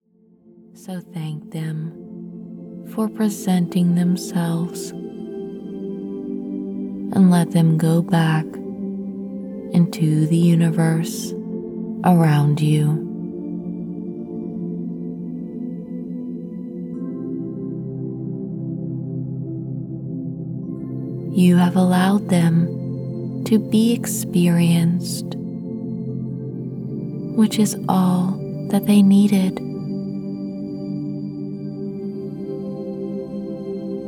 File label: Meditation for Honoring Grief